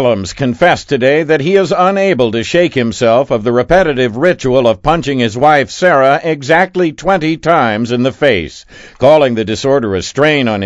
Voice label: radio news; onion